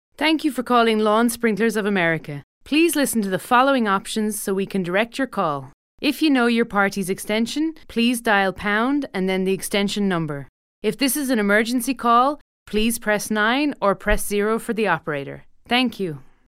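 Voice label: English Irish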